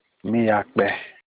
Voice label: "mi akpe", dont be long, Olukumi